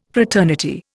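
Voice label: "fraternity", Indian English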